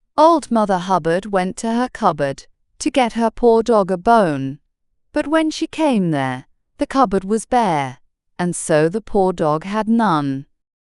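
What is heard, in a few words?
female brit; Old Mother Hubbard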